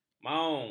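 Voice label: "maung", Malay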